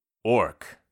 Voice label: orc; 5etools; bestiary